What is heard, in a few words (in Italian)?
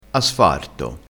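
"asfarto", dialettale crespinese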